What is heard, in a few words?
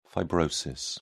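"fibrosis", English, British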